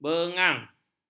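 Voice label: "bengang", Malay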